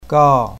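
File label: "gao4", Mandarin Chinese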